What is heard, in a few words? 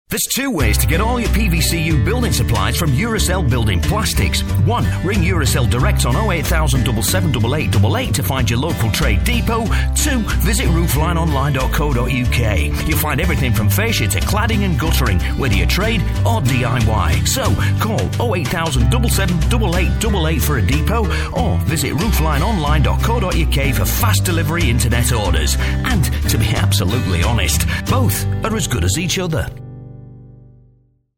northern bloke